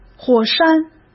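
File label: "火山", Mandarin Chinese; chinese flashcards; hsk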